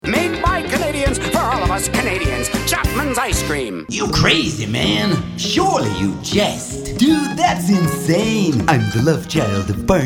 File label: Animation